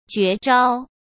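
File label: "绝招", Mandarin Chinese; chinese-flashcards; hsk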